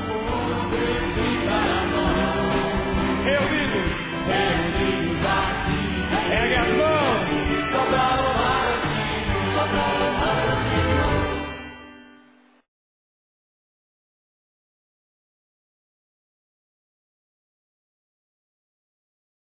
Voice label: Parabéns Você Venceu m, Telemensagens; masc; Telemensagens 2017

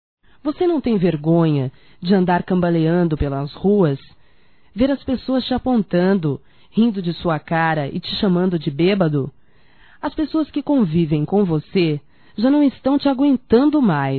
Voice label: Bebado Feminino, Broncas; Telemensagens 2017

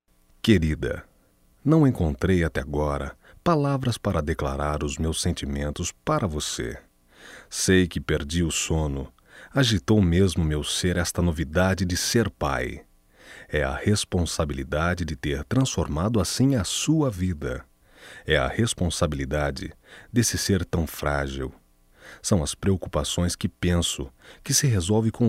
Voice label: Fruto do Amor Masculino; Telemensagens 2017; MOMENTOS DE AMOR

